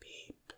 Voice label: Voices quiet; The Looker